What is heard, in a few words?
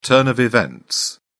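"turn of events", British English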